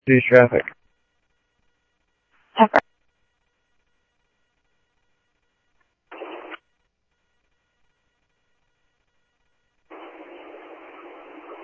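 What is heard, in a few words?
Sheriff East County, scanner audio trunk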